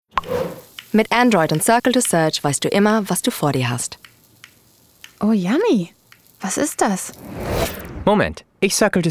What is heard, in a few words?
radio panettone